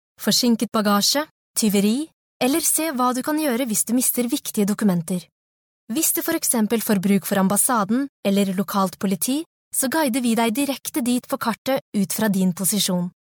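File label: Norwegian, female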